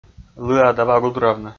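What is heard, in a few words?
"лыа да ва гудравны", Komi, male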